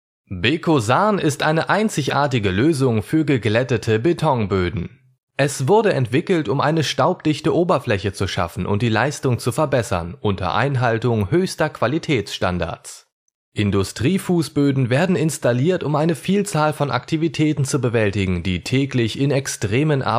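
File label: German, male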